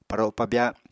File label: "paro pabya", apatani